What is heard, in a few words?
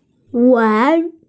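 "wan2", Mandarin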